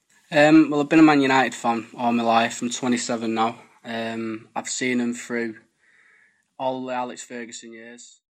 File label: Salford male aged 27